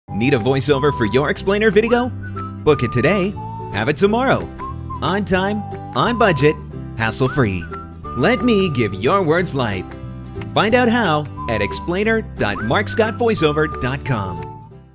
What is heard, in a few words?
explainer; intone